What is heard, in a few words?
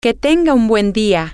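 have a good day, spanish, female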